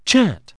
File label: chat; icons